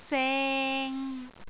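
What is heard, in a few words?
"seng3", Cantonese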